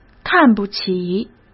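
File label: "看不起", Mandarin Chinese; chinese flashcards; hsk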